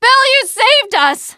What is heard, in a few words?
teengirl, voice; c6 dlc3 bill dies